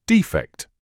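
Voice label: "defect", British English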